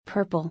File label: "Purple", English